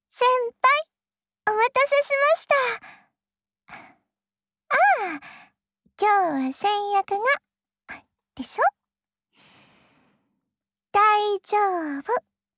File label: Whisper,ASMR